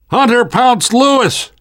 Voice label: hunter pounced, survivor voice namvet